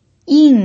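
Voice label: "yin", fourth tone, Mandarin Chinese pinyin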